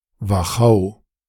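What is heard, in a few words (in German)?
"Wachau" German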